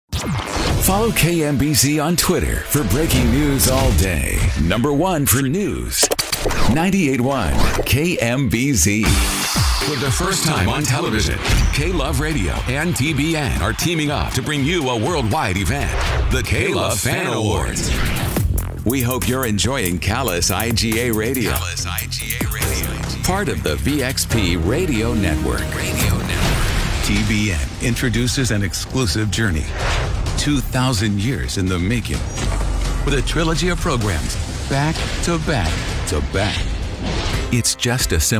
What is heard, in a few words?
Website Promo